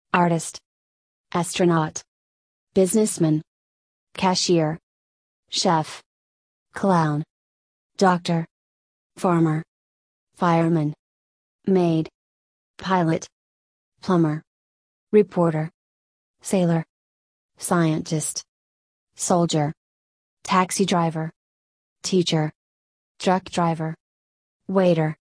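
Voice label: American; jobs match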